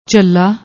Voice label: "jhalla", Punjabi